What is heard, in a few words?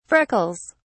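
"freckles", British English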